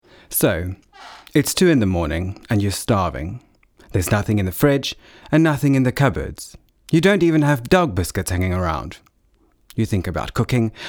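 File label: english soft sell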